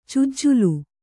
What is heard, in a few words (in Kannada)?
"ಚುಜ್ಜಲು", kannada